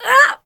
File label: hit, en; Cookie Run